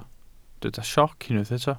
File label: "sioc", Welsh